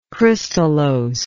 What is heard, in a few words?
"crystallose", english